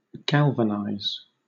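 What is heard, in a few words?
"galvanise", British English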